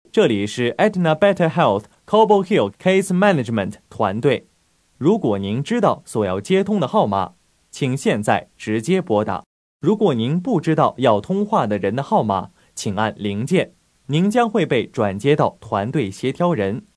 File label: Chinese